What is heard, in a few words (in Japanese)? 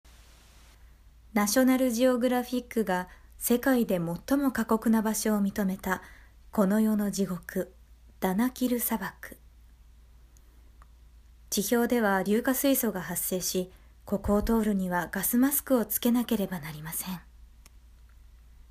aoi kokoro vs narration